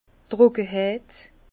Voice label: "trockeheit", Bas Rhin; vin; sécheresse

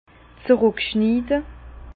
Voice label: "zruckschniide", Bas Rhin; vin; rajeunir par la taille

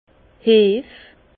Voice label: "heff", Bas Rhin; vin; levure

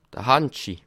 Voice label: "handshi", alsace

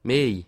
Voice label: "miehj", Alsace

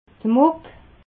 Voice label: "muck", alsace

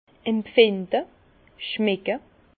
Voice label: "empfinde", Bas Rhin; vin; sentir

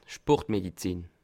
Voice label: "sport", Alsace

